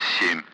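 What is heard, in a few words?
Speech Sound Russian Common Allied Flight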